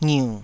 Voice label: "ngiu3", taiwanese syllables